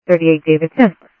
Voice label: Sheriff Central, scanner audio trunk